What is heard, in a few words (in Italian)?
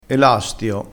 "elastio", vocabolario dialettale